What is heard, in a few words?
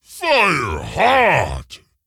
Ogre Emote FIRE FESTIVAL